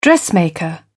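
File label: "dressmaker", British English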